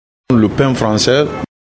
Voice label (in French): Pain francais mot